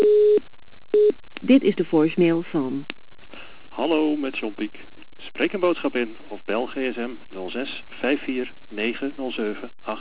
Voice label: voice mail